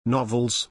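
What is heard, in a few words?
"novels", English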